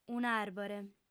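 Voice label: "un'àrbore", Sardinian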